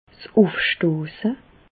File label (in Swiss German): "ufstosse", Bas Rhin; medecine; régurgitation